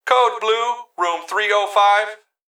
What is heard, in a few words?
Emergency Human Male Voice Hospital Call Code Blue Room 305; emergency effects